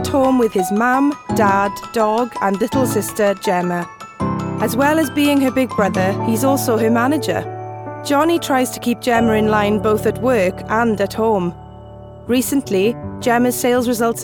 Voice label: Doc Call Centre